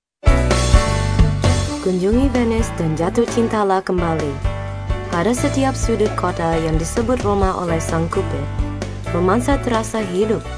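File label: Bahasa Indonesia female voice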